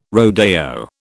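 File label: "rodeo", British English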